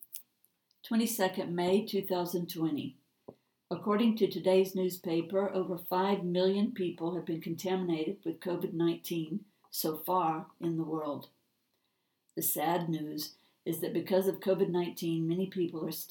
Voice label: manifesto english